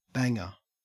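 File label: "banger", Australian English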